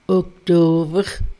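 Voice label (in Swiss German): "Oktower", Alsatian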